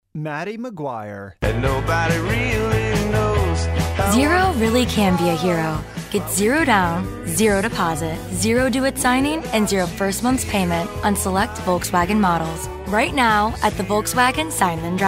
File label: commercia